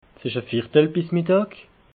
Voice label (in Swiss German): "viertel", alsace